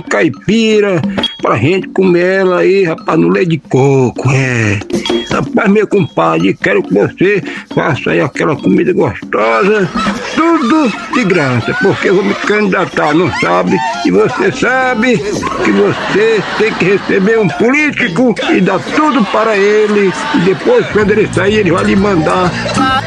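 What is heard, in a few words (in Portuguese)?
visita do politico narração velho justo justíssimo sátiras política e humor comédia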